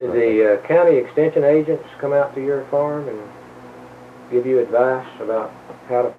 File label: Oral history with 80 year old white male Marked Tree Arkansas, american english dialect